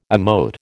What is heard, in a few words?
"emote", American